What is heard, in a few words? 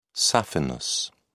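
"saphenous", British English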